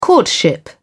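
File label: "courtship", British English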